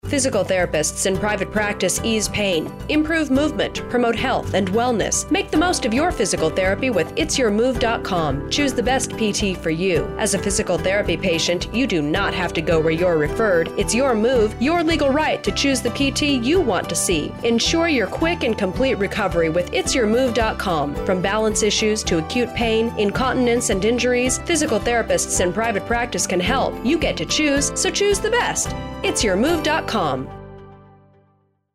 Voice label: radio commercial